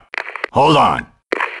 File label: "check", grunt; nimble grunt